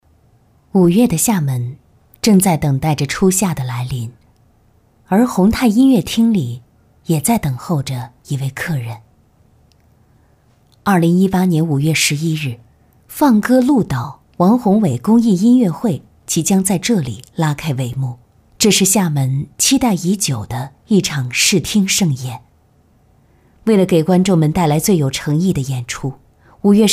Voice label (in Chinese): 女 专题 纪实叙述纪录片